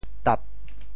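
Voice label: "tap4", Teochew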